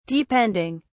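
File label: "depending", British English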